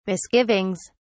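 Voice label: "misgivings", British English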